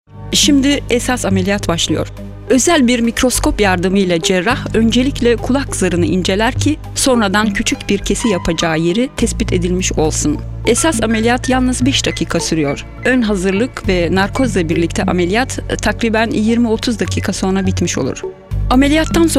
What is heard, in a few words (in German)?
Multimedia Tuerkisch